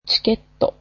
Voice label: "ticket", japanese